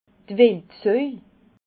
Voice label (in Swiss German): "wildsoj", alsace